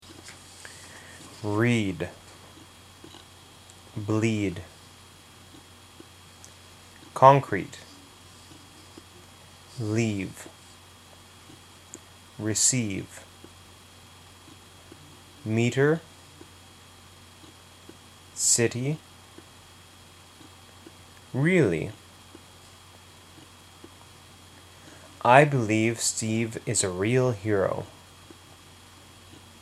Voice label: BC Vancouver Practice, Canada